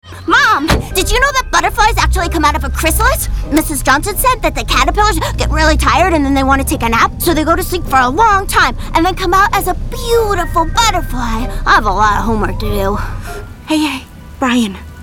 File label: character demo